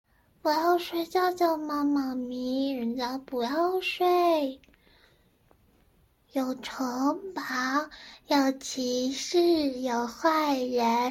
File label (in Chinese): 萝莉音